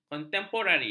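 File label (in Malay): "kontemporari", Malay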